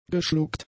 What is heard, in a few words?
"geschluckt", German; adjectives